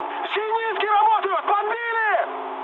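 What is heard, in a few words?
Russian damaged aa mood high, speech; War Thunder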